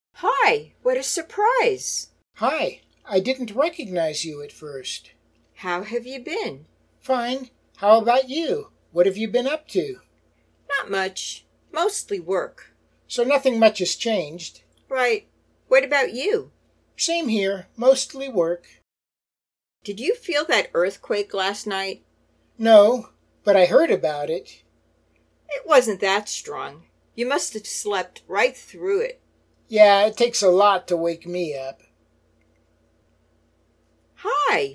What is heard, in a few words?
Natural Dialog Complete